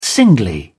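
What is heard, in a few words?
"singly", British English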